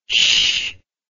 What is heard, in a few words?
"shh", words